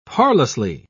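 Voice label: "parlously", British English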